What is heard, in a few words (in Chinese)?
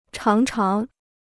"常常", Chinese